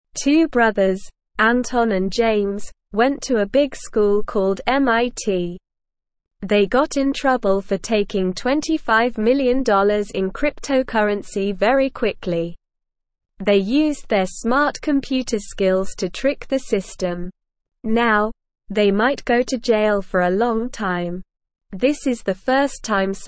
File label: English SLOW Reading; Brothers steal lots of money from computer